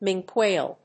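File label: "minke whale", English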